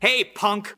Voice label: "hey punk", voice; introductions; hello hi hey

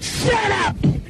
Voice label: shut up male; Voice; expletives

